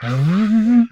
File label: humanoid portrait male; Stellaris; humanoids